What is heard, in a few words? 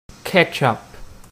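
"ketchup", Canadian English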